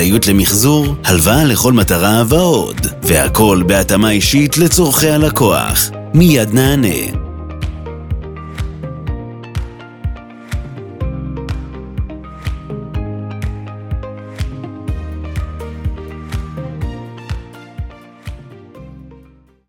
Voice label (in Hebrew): משכנתאות כולל מוזיקת רקע